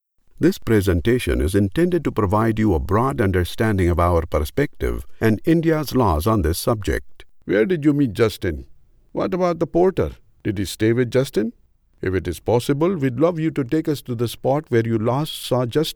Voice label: Neutral East Indian accented English